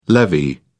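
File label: "levee", British English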